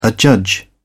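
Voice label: "adjudge", British English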